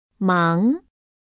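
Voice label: "忙", Chinese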